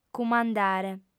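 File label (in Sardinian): "cumandare", female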